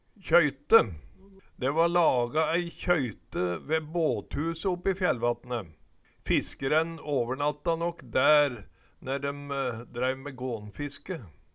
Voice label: kjøyte, Numedalsmål

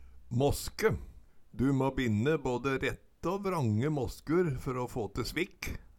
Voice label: maske, Numedalsmål

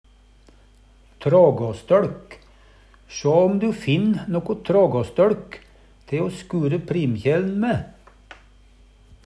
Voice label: trågåstøLk, Numedalsmål; Substantiv hankjønn; Planteriket; Hushald, mat, drikke; Sjå om du finn noko trågåstøLk te o skure primkjæl*n mæ.

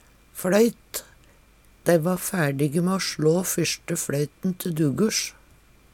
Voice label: fLoyt, numedalsmal

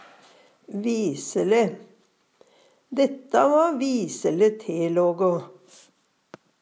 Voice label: "visele", Numedalsmål; Dæ va so visele telågå.; gjennomtenkt, godt, klokt; Adverb

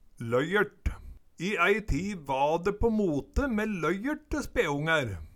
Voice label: loyert, Numedalsmål; løyert

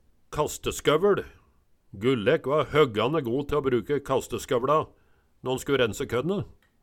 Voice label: kasteskoveL, Numedalsmål

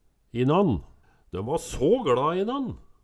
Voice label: inan, Numedalsmål; Døm va så gLa i inan

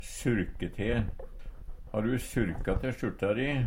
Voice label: surke te, Numedalsmål; Verb; Personleg utstyr, klede, sko

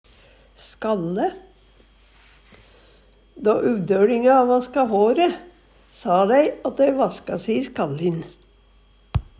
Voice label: "skalle", Numedalsmål; Substantiv hankjønn; Då uvdøLinga vaska håre, sa dei at dei vaske se i skallin.

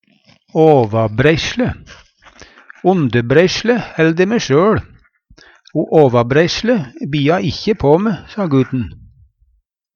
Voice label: "åvabreisle", Numedalsmål

